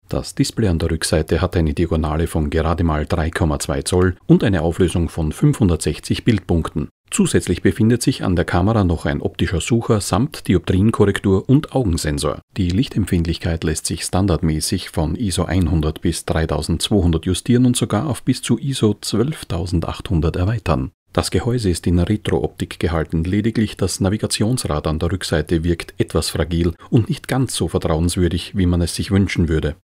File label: Camera Test Voice Over